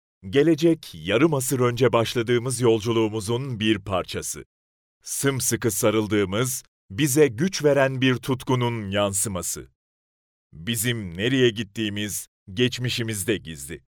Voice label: turkish promos

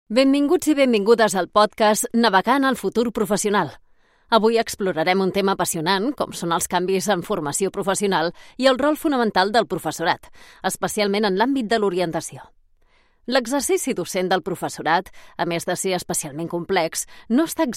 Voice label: catalan podcasts; professional Spanish and Catalan voice actor

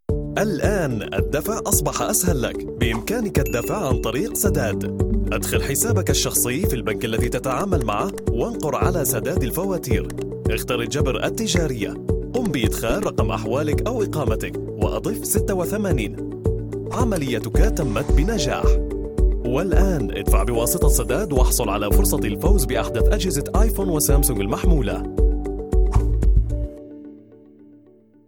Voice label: arabic audio guides

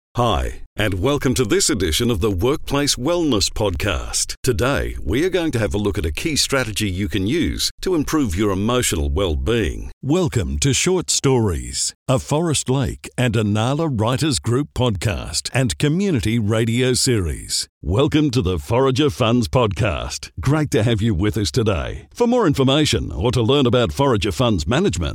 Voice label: english australian podcasts

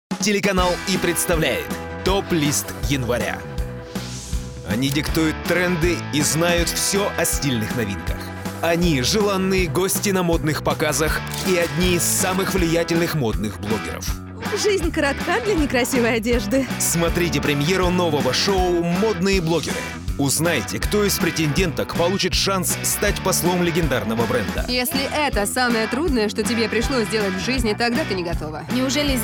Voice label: russian promos

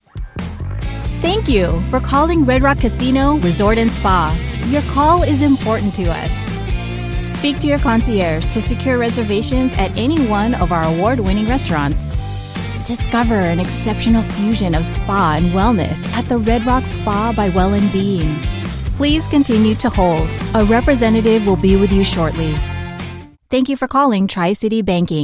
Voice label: english american ivr; first-generation, Filipina, Asian-American professional voice

